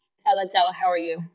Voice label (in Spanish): Voice Acting es